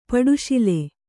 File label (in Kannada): "ಪಟ್ಟಶಾಲಿ", Kannada